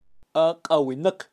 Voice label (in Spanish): "aq awinaq" mapache, kaqchikel, pronunciacion de animales